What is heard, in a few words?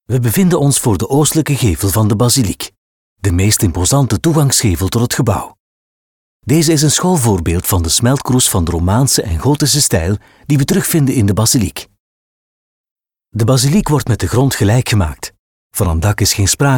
Audioguide Narration; Reims